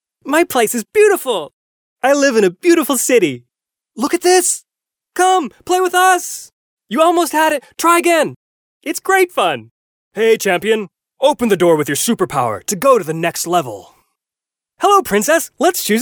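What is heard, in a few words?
American English male children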